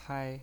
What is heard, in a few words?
"pai1", pinyin